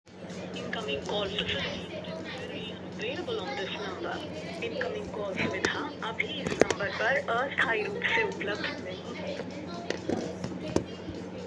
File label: call recording